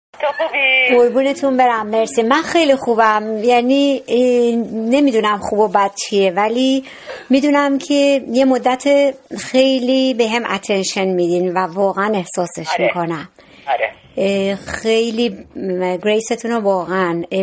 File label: phone, I am greatful; sofre & sleep over in cave